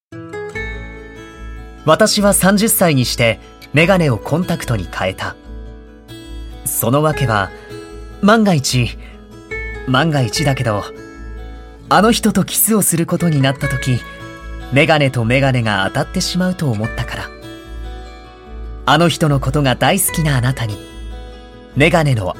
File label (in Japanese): ナレーション